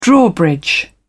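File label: "drawbridge", British English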